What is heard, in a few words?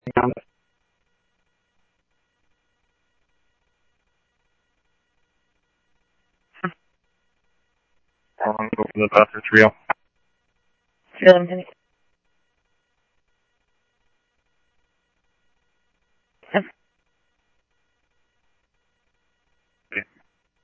Police, scanner; H-Scottsdale; ScottsdalePolice